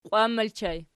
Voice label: "qiwan", Archi